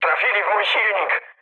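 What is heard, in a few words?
damaged engine, mood med, Polish speech; War Thunder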